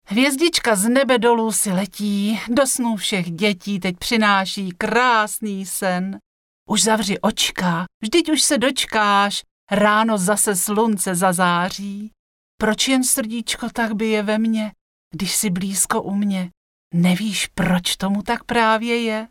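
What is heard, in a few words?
female Czech drama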